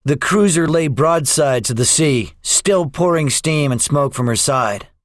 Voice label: debriefing, english; War Thunder